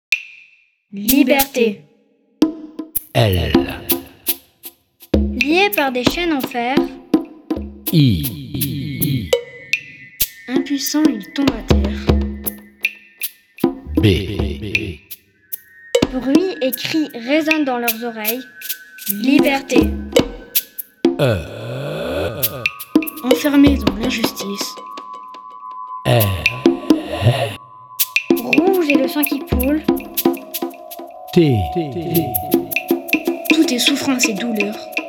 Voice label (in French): Acrostiches Liberte Binaural